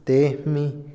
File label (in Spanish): quebrar, Otomí, hombre; INALI